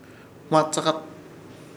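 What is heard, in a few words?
"Hõts̃akat", Chamacoco word, ave chiricota; Ishir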